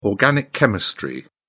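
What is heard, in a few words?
"organic chemistry", British English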